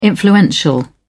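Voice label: "influential", British English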